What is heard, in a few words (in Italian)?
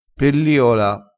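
"pelliola", dialettale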